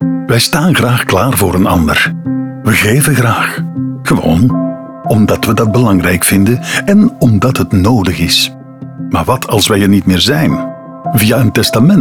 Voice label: Radiospot; Testament